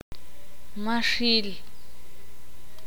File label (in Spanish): "broma", ixil